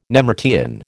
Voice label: "nemertean", American